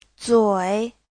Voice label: "zui3", Chinese; 嘴; (danh từ) miệng